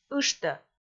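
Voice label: "ыштӧ", Komi, female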